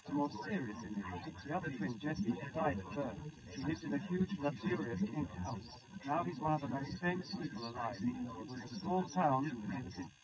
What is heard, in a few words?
male srec 130ms 50cm sim; SiSEC11; underdetermined